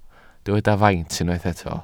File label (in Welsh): "faint", Welsh